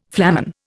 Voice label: "flamen", Indian